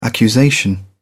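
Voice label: "accusation", British English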